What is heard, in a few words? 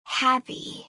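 "happy", English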